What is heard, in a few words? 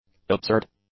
"absurd", english; adjectives